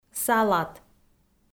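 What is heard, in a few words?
"салат", Komi, female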